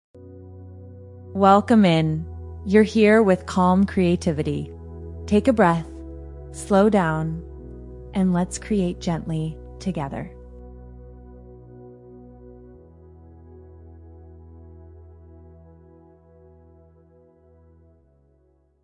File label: podcast welcome clean